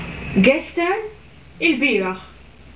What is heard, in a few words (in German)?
gestern, Maltesisch